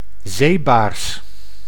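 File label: "zeebaars", Dutch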